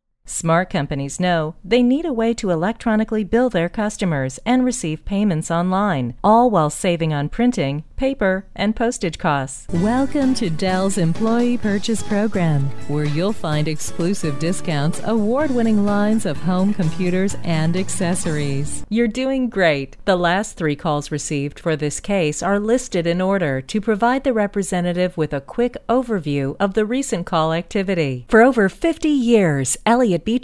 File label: E Learning